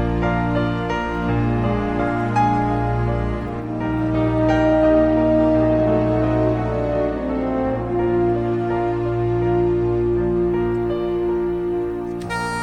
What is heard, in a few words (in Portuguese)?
locutor, homenagem